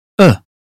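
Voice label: "above", British phonetics sound; 英语音标发音（剑桥版）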